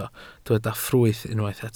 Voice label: "ffrwyth", Welsh